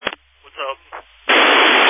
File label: Family Radio Service (FRS)